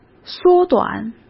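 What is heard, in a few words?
"缩短", Mandarin Chinese; hsk; chinese-flashcards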